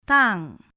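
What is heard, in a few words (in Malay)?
"tang", Malay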